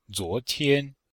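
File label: "zuo2tian1", Chinese; Cours-de-Chinois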